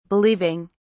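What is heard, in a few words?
"believing", British English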